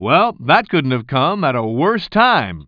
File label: commentator